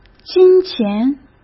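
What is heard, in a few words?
"金钱", Mandarin Chinese; chinese flashcards; hsk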